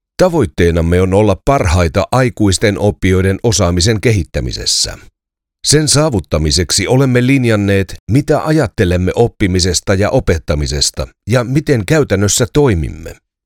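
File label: Finnish, male, eLearning; voice over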